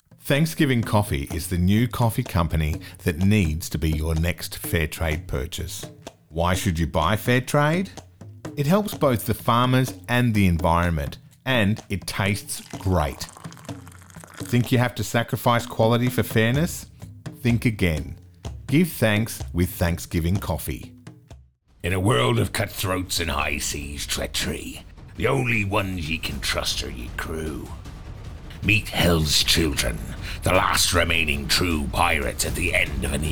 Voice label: Commercial